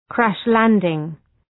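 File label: "crash landing", English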